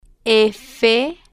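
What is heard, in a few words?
"f", spanish, female, slow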